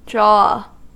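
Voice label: "jaw", US English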